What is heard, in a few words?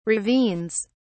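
"ravines", British English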